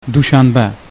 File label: "Monday", Uzbek